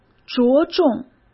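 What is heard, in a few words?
"着重", Mandarin Chinese; chinese-flashcards; hsk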